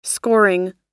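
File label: "scoring", US English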